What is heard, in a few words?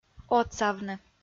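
"отсавны", Komi, female; kpv; audio dict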